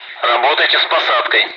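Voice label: Speech Sound Russian Common air traffic control Messages; ATC; DCS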